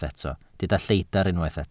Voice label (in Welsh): "lleidr" Welsh